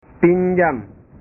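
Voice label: "pinjam", Indonesian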